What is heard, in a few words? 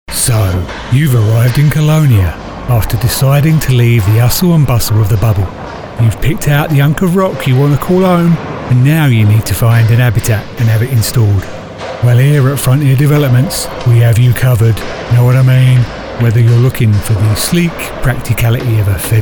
Advert; Frontier Developments